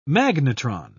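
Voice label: "magnetron", British English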